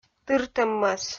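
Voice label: "тыртӧм мӧс", Komi, female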